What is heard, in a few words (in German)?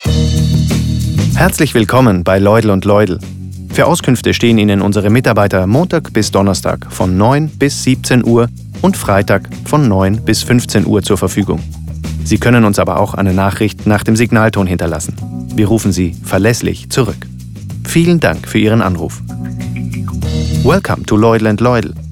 Telefon